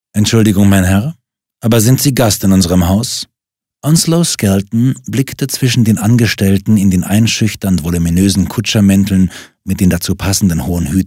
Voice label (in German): Hoerbuch